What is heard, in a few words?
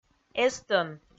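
"эстӧн", Komi, female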